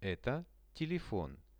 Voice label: "eto telefon", Russian; Это телефон.; veshi

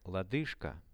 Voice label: "lodyzhka", Russian

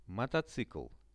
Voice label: "moto", Russian; мотоцикл; transport; Что это? Это мотоцикл.; visual-dictionary

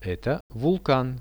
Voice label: "eto vulkan", Russian; Это вулкан; nature; priroda; RUSSIAN VISUAL DICTIONARY

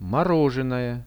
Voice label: "morozhenoje", Russian; мороженое; Это мороженое.; products

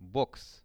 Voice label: box, RUSSIAN; бокс; Какой это вид спорта?; sport; RUSSIAN VISUAL DICTIONARY

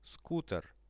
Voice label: "skuter", Russian; скутер; transport; Что это? Это скутер.; visual-dictionary

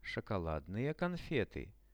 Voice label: shokoladnye konfety, Russian; products

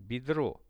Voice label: "bedro", Russian; бедро

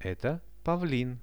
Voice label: "eto pavlin" Russian; Это павлин; birds; RUSSIAN VISUAL DICTIONARY

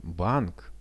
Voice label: "bank", Russian

